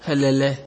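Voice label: "helele", Nigerian Pidgin, male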